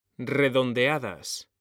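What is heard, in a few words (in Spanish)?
"REDONDEADAS", Spanish; mekanta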